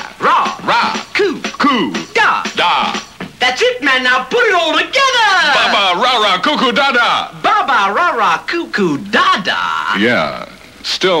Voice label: Commercial, Plymouth Barracuda; 1966